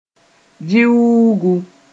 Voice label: "vĩuungu", moore

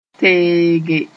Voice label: "teege", moore

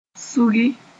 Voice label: "sũgi", Moore; to join things up

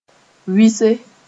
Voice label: "wɩse", moore

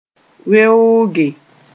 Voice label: "weooge", moore

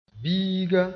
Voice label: "biiga", moore

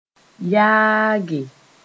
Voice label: "yaage", moore; to ward off

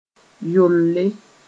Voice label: "yolle", moore; wart

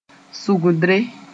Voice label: "sʋgdre", Moore; plant sp

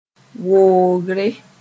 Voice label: "woogre", moore

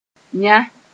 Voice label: "yã", moore

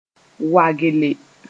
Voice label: "wagelle", Mooré; pride

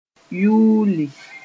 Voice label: "yuuli", moore

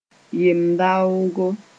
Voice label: "yem-daoogo", moore

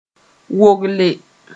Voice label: "wogle", moore

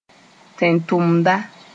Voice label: "tẽn-tʋmda", Moore; sent one

